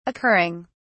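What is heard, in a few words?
"occurring", British English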